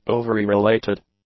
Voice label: "ovary-related", English; adjectives